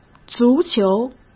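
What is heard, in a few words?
"足球", Mandarin Chinese; chinese flashcards; hsk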